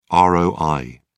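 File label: "roi", British English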